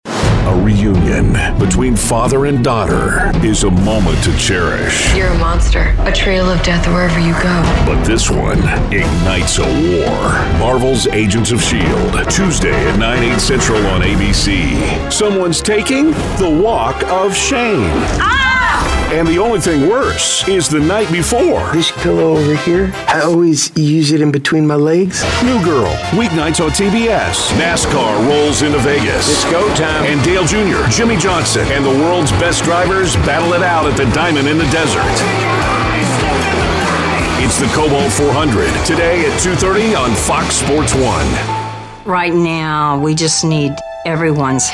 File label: Voice Talent Promo